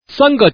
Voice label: "sange", man